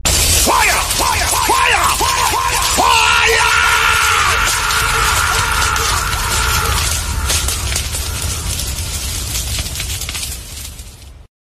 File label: DJ Effect - Fire Fire Fire Firrreee Effect